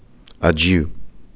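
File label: "adieu", Canadian, male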